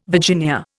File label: "Virginia", Indian